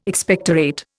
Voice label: "Expectorate", Indian